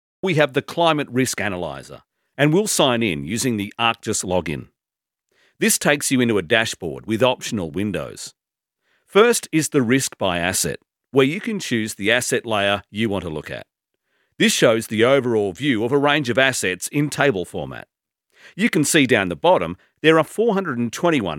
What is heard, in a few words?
AUS Male; Studio Nonagram